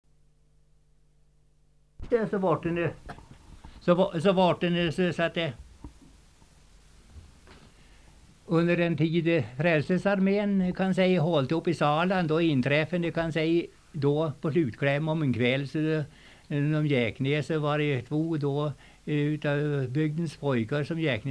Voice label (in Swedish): Häggenås, dialekter; Svenska sagor; Folkminnen